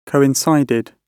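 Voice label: "coincided", British English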